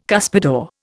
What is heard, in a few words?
"cuspidor", Indian